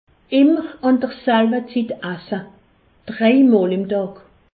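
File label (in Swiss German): "selbzit", Alsace, Haut Rhin; medecine; manger à heures régulières trois fois par jour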